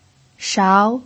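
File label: "shao" Mandarin Chinese, second tone, pinyin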